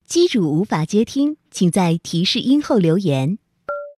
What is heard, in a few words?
recorder prompt, China Telecom